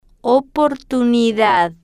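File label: "oportunidad", slow, spanish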